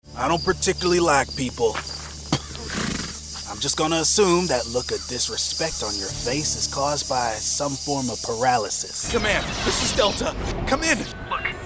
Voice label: CHARACTER